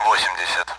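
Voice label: Speech Sound Russian Common AWACS Numbers; DCS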